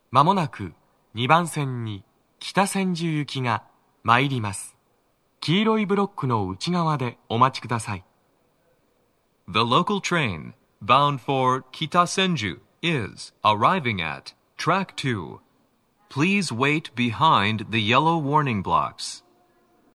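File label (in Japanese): 茅場町駅, 2番線 接近放送, 男声, TOA天井型での収録です; 2番線 北千住・南栗橋方面; 放送は「北千住行」です。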